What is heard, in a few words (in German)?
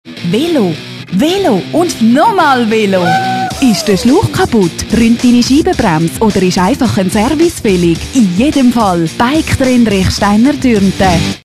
Spot Werkstatt neu